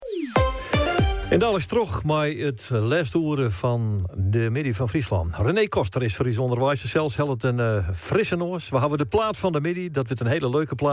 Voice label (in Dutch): aankondiging; Omrop Fryslan